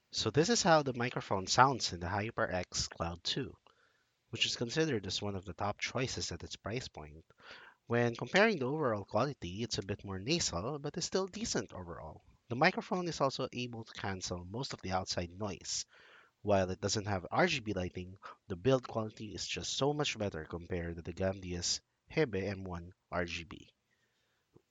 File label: Mic Test; HyperX Cloud II